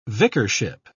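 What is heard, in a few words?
"vicarship", British English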